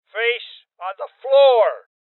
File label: Order To Surrender Male Old; Rebel Cops